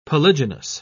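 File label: "polygynous", British English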